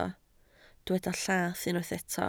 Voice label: "llath", Welsh